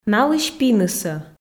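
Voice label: "налысь пинысӧ", Komi, female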